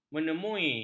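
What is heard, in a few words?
"menemui", Malay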